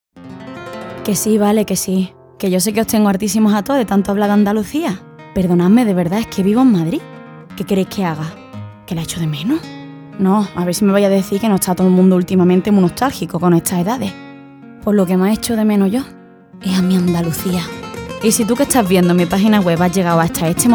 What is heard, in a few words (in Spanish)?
Andaluz; Genérica